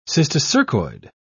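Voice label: "cysticercoid", British English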